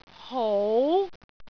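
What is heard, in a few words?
"hou2", Cantonese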